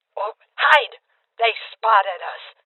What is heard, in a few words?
Female Old